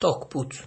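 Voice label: "talk put", Nigerian Pidgin, male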